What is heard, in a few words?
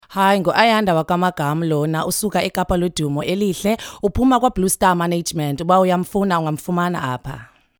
intro xhosa